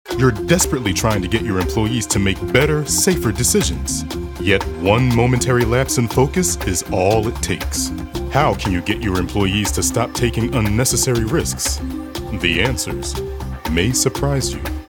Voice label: narration voice over risks, Corporate, Urgent; Company Risk